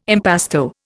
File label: "impasto", Indian